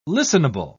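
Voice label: "listenable", British English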